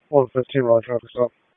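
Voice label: Police Southwest District Dispatch; wake p25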